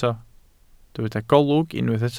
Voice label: "golwg", Welsh